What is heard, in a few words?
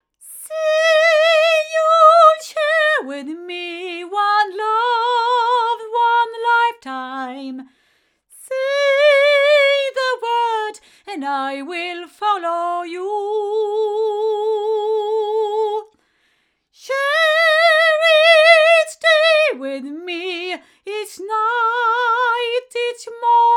Sontronics Omega female